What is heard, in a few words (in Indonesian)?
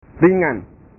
"ringan", bahasa